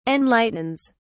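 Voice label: "enlightens", British English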